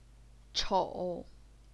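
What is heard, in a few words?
"chou", third tone, Chinese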